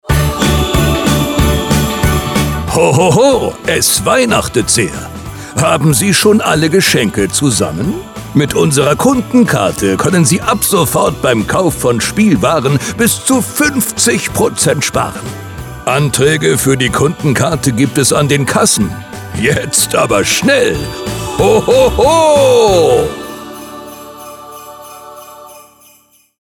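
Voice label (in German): Xmas Beispiel männliche Stimme Musik gesprochen; MM Germany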